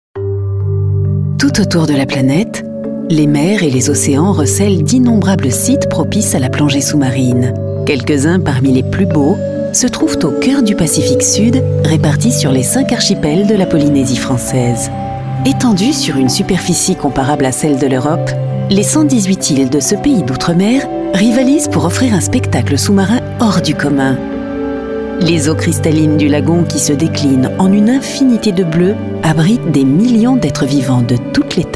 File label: French voiceover calm warm